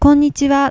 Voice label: "konnichiwa", Japanese